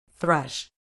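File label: "thrush", British English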